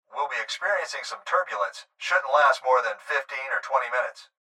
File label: Pilot Voice Turbulence